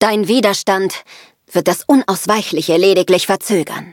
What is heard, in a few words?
loading, de; cookie run